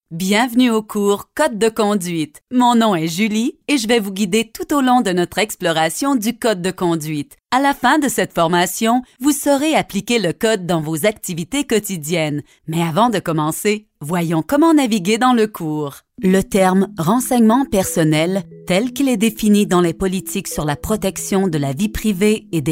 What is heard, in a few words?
eLearning; FRCA; GoLocalise